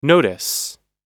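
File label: "notice", American English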